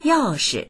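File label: "yao4shi", Mandarin Chinese; 钥匙; 鍵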